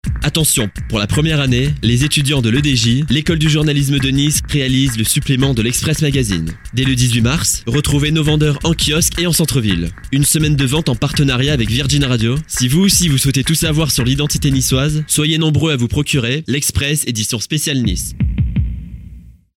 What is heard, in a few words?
French